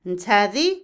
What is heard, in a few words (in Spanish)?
estudiar, Otomí, mujer; INALI